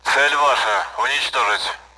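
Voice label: Speech, Russian, AWACS; engage target; DCS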